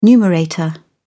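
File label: "numerator", British English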